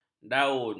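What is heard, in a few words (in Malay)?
"daun", Malay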